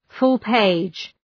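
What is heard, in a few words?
"full page", English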